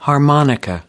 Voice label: "harmonica", us

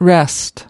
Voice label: "rest", us

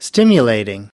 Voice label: "stimulating", us

